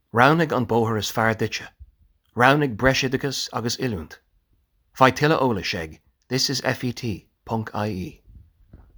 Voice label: Irish VO